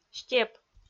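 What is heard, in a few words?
"степ", Komi, female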